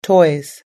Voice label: "toys", US English